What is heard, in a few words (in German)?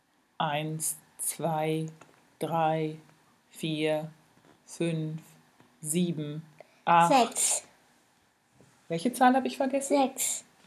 Zählen Fehler laut